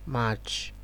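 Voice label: "march", Nigerian Pidgin, male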